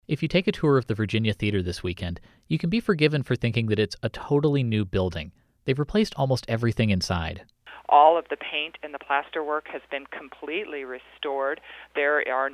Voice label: radio spot; virginia